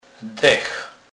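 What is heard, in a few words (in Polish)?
"dech", Kurpie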